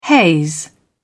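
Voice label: "haze over", British English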